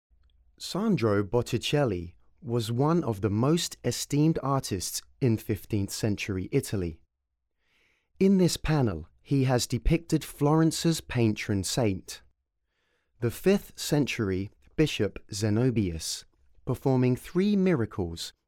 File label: English, audio guide